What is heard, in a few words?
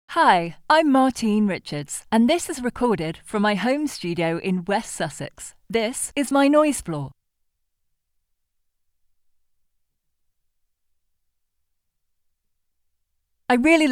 Home Studio; SSL2